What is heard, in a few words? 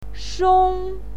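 "shong", Mandarin Chinese, first tone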